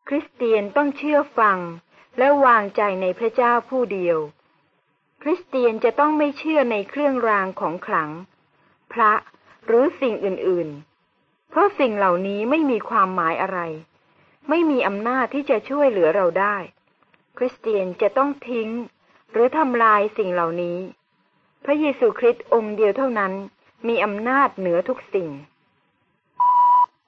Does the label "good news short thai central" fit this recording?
Yes